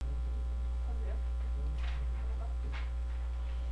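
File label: "can", spoken word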